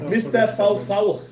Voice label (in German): ohne echo